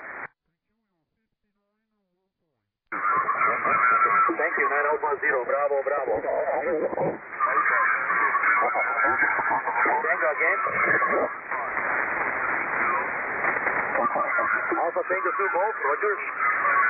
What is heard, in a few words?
CQWW SSB USB 21MHz